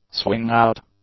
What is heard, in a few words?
"swing-out", English; adjectives